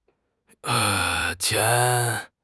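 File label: "qian2", Mandarin Chinese; HSK1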